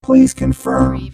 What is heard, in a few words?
Robot voice "please confirm"; lynne publishing